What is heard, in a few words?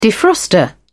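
"defroster", British English, dictionary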